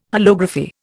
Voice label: "holography", Indian